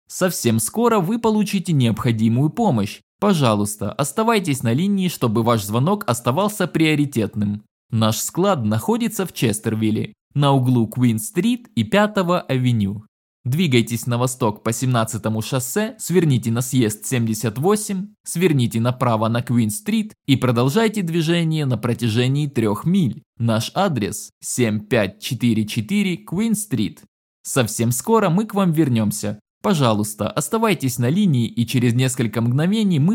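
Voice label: Russian, IVR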